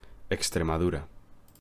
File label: Pronunciation of Extremadura in Spanish